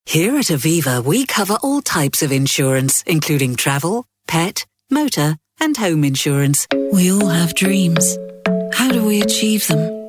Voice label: Commercial Mix